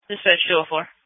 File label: Police Tactical Encrypted; sdr